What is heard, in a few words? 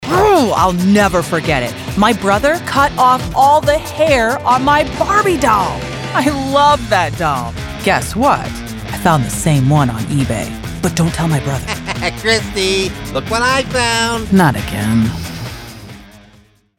Agression Disappointment Relief